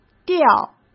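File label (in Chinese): "调", Mandarin Chinese; chinese flashcards; hsk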